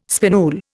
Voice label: "spinule", Indian